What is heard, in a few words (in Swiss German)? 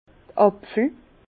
"apfel", Bas Rhin; vin; pomme